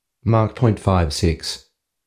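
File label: mach 056, Australian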